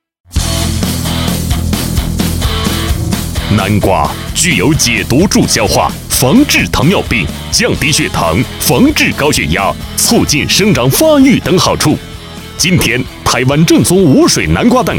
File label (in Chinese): 男 促销 南瓜